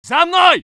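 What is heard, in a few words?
voice soviet infantry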